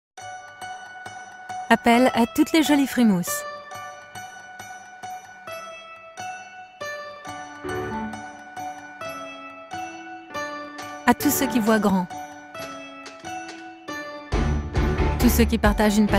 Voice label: Commercial